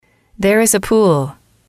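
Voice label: there is a pool, female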